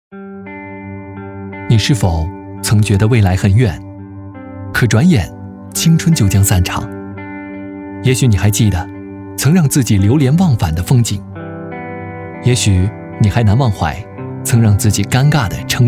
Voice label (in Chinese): 旁白 微电影